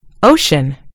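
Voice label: "ocean", British English; gcompris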